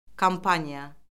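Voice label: "kompaniya", Azerbaijani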